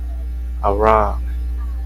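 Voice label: "alarm", JPN, male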